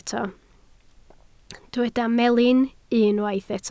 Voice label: "melin", Welsh

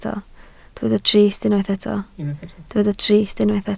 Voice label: "trist", Welsh

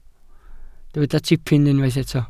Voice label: "tipyn", Welsh

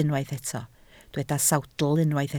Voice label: "sawdl", Welsh

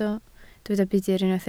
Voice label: "budr", Welsh

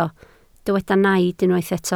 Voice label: "naid", Welsh

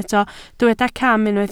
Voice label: "cam", Welsh

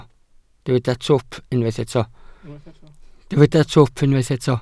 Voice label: "twp", Welsh

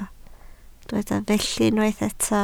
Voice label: "felly", Welsh

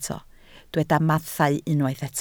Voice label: "mathau", Welsh

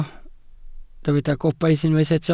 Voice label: "gobaith", Welsh

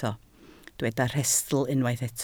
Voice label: "rhestl", Welsh

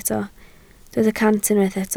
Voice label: "cant", Welsh

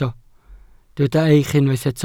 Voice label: "eich", Welsh

